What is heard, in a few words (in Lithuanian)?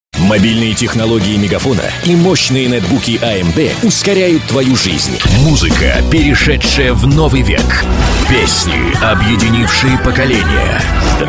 Rusiškas balsas, vyras; rusu